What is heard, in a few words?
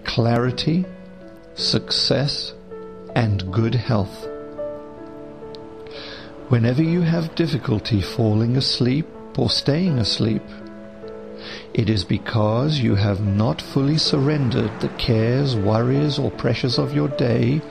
hypno sleep